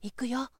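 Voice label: "ikuyo", boy; shonen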